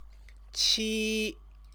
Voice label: "qi", Chinese